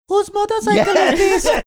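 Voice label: saying something funny manner; after these messages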